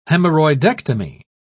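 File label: "hemorrhoidectomy", British English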